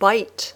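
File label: "bite", English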